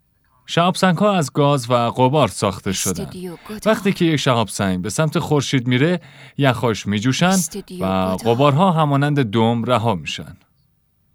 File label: dub